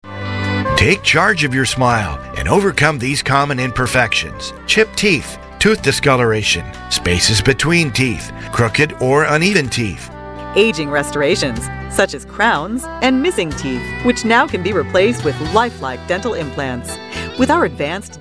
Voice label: Dentist Male Female On Hold Message Sample